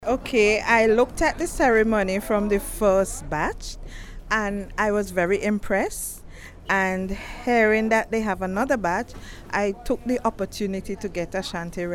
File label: VOX POP